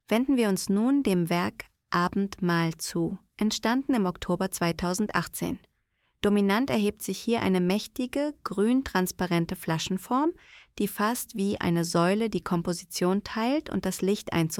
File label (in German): voice audio guide; abendmahl